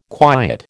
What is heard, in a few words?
"quiet", American